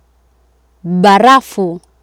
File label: "barafu", Swahili